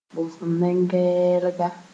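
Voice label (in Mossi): "bʋgs-n-gɛɛlga", Mooré; problem (math.)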